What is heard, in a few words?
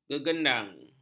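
"gegendang", Malay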